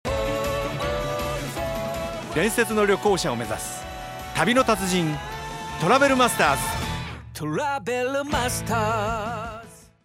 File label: commercial